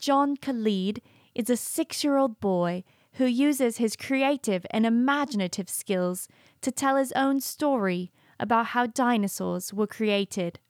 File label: British Narration